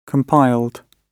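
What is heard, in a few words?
"compiled", British English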